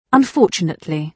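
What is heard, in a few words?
"unfortunately", British English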